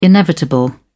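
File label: "inevitable", British English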